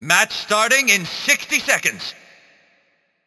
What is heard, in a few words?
Announcer Match Begin; MechaCommander